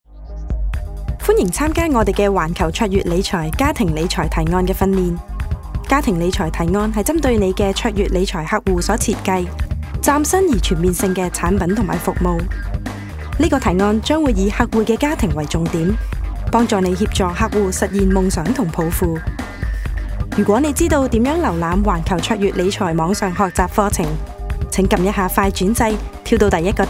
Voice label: Cantonese Corporate Full Mix

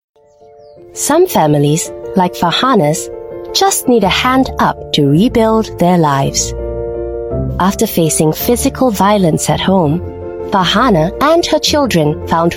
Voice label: Asian English PSA

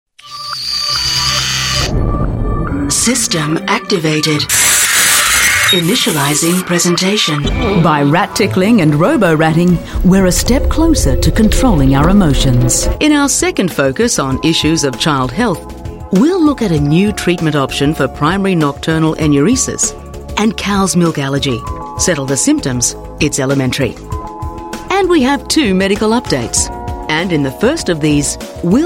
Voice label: AU English corporate

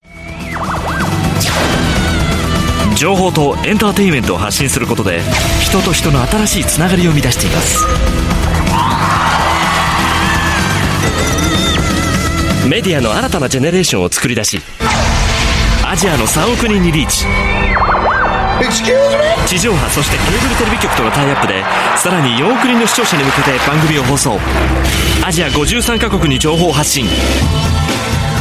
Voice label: Japanese Voice Sample

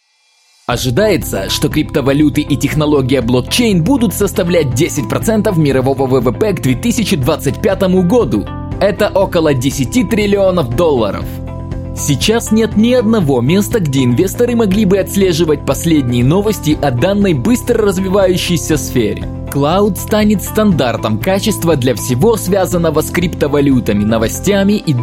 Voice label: Russian; CLOUT

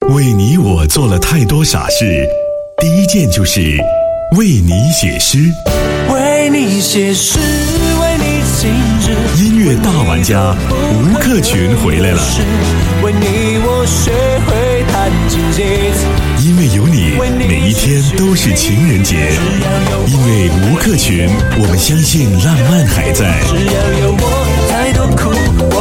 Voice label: Mandarin Radio, Male; China

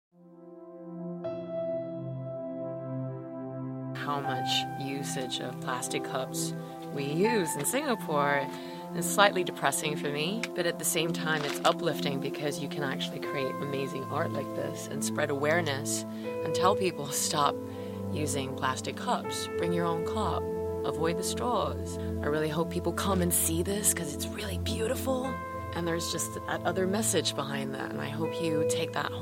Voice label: Asian English; Plastic Usage PSA

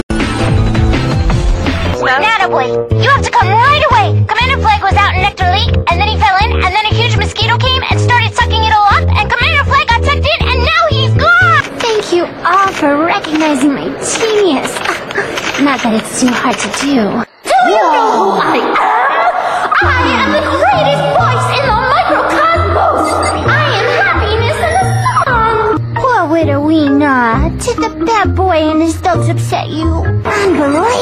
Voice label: US English Animation

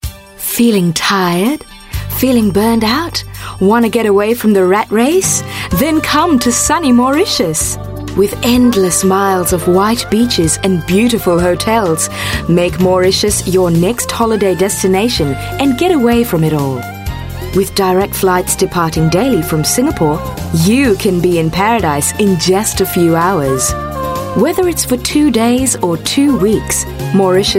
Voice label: Asian English; Mauritis

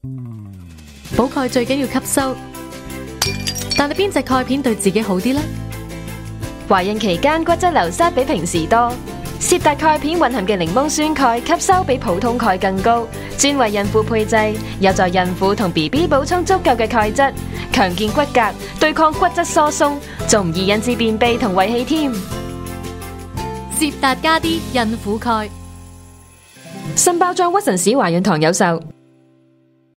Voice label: Cantonese Female; Citracium

